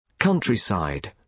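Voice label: "countryside", British English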